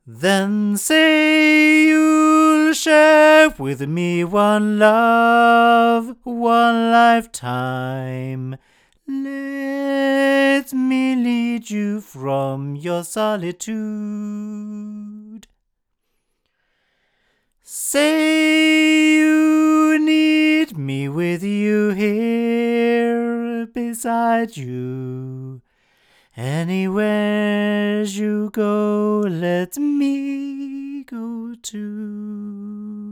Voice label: Rode NT2 cardioid male

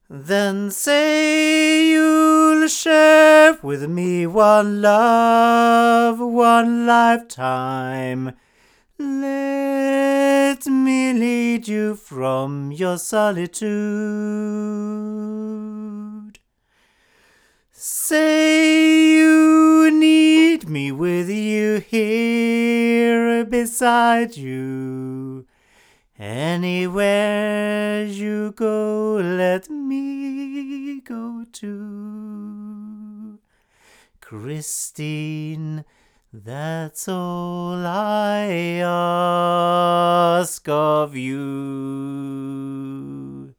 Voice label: sE 2A hypercardioid male, microphones; se electronics

